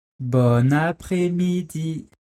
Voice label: "Bonne après-midi", French

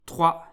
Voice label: number 3, French

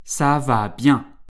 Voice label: "ça va bien", French

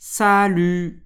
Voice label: "salut", French

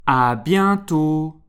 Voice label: "à bientôt", French

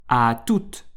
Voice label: "à tout", French

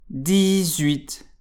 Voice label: number 18, French

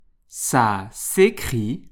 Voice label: "ça s'écrit", French

